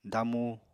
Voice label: "damu", Apatani